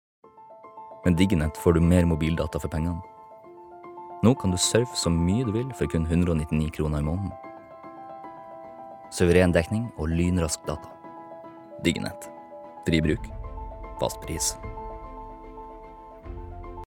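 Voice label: Norwegian reklame, mann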